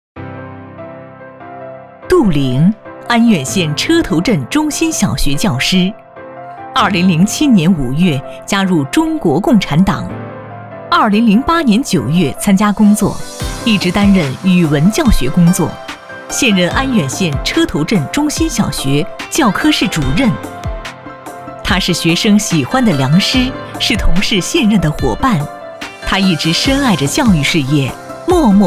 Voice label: 女, 个人事迹

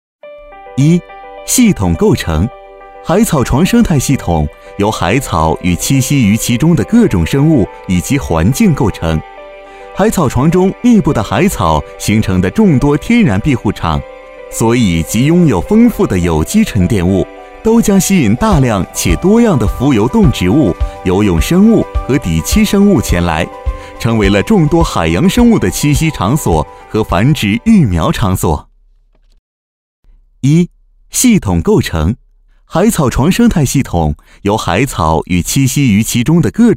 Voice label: 男 专题 海洋讲解

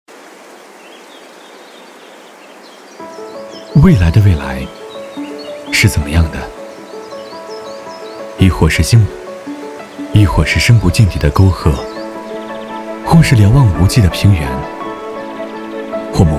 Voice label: 男 独白; 未来的未来

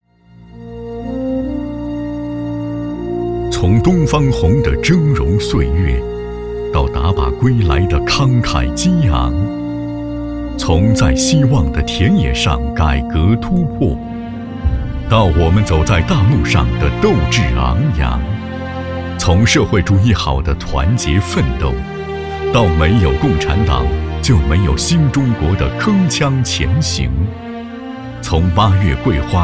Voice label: 男 党政专题; 东方红

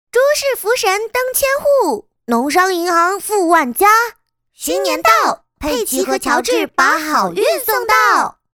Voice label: 女 童声; 佩奇; 乔治

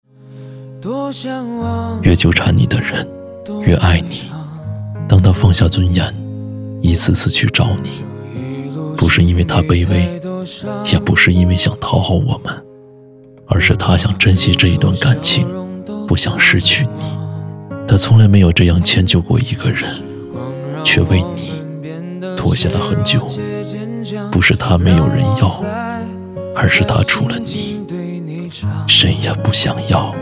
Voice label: 男, 抖音旁白; 越纠缠你的人-越爱你

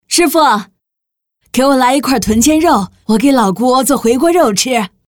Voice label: 女, 模仿, 角色, 50岁老人; 老人案例

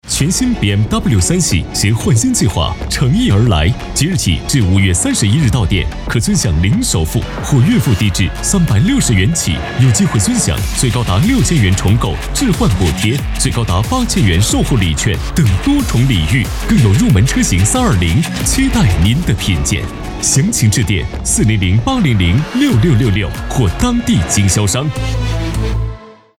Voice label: 男 广电栏目 雅致磁性 电台汽车广告; BMW3

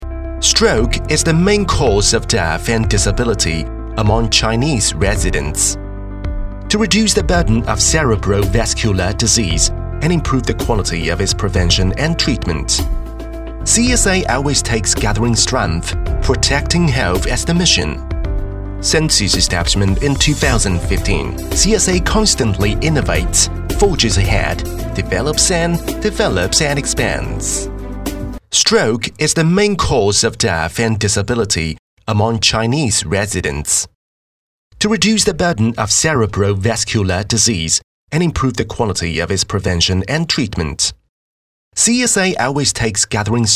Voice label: 英男 英式 专题 年轻音色 科技感

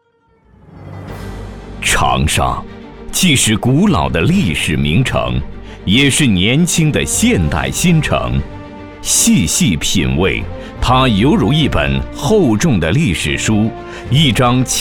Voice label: 男 宣传 传统; 长沙

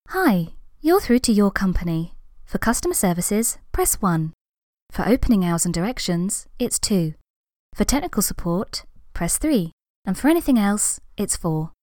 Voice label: 外籍 英 女 英式 IVR 电话应答系统

